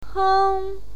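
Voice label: "heng", Mandarin Chinese, first tone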